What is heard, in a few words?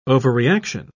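"overreaction", British English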